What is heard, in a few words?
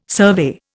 "survey", Indian